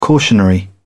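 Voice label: "cautionary", British English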